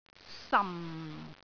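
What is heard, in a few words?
"sam4", Cantonese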